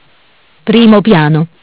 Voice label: "Primo piano", MESSAGGI VOCALI; Bentel; BLE320; Audio BGS220

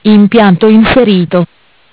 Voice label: "Impianto Inserito", MESSAGGI VOCALI; Bentel; BLE320; Audio BGS220

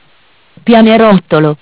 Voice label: "Pianerottolo", MESSAGGI VOCALI; Bentel; BLE320; Audio BGS220

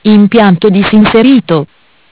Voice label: "Impianto Disinserito", MESSAGGI VOCALI; Bentel; BLE320; Audio BGS220

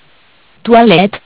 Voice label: "Toilette", MESSAGGI VOCALI; Bentel; BLE320; Audio BGS220

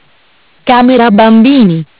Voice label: "Camera bambini", MESSAGGI VOCALI; Bentel; BLE320; Audio BGS220

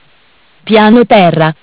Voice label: "Piano terra", MESSAGGI VOCALI; Bentel; BLE320; Audio BGS220

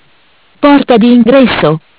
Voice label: "Porta di ingresso", MESSAGGI VOCALI; Bentel; BLE320; Audio BGS220

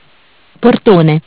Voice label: "Portone", MESSAGGI VOCALI; Bentel; BLE320; Audio BGS220

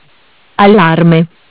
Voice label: "Allarme", MESSAGGI VOCALI; Bentel; BLE320; Audio BGS220

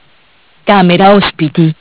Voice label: "Camera ospiti", MESSAGGI VOCALI; Bentel; BLE320; Audio BGS220

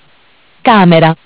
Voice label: "Camera", MESSAGGI VOCALI; Bentel; BLE320; Audio BGS220

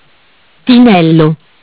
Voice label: "Tinello", MESSAGGI VOCALI; Bentel; BLE320; Audio BGS220

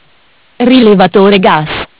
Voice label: "Rilevatore gas", MESSAGGI VOCALI; Bentel; BLE320; Audio BGS220

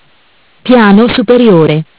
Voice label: "Piano superiore", MESSAGGI VOCALI; Bentel; BLE320; Audio BGS220

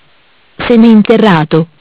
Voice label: "Seminterrato", MESSAGGI VOCALI; Bentel; BLE320; Audio BGS220

